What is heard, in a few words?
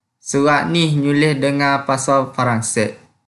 Kelantan-Pattani Malay